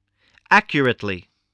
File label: "accurately", English voice